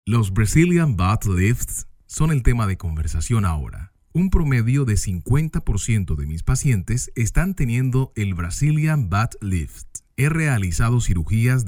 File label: NARRATION MEDICAL